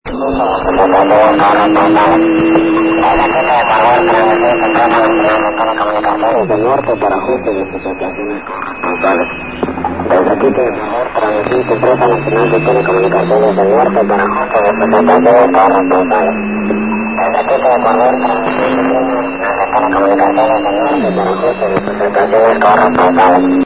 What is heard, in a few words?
usb